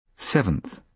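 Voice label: "seventh", British English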